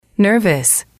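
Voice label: "nervous", English, female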